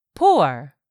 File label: "pour", English; 3000 words